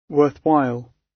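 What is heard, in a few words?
"worthwhile", British English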